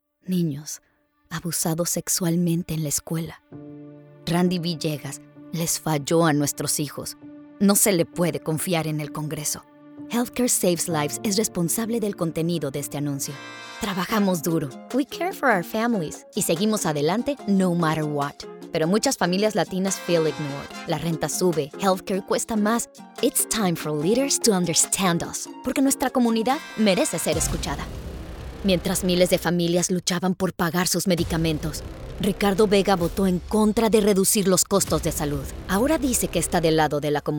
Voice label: political voice over